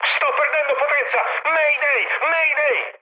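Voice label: Italian damaged engine mood high, speech; War Thunder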